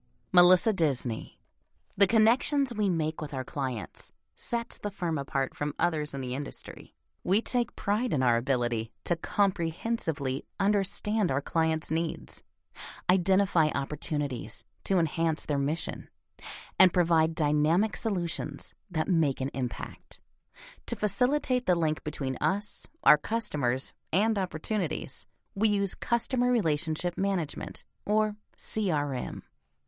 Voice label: E-Learning